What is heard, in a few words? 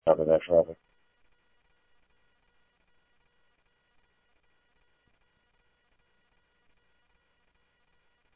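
Sheriff Lake Patrol, scanner audio; MCSO Lakes 1 SheriffLakePatro; ThompsonPeakScot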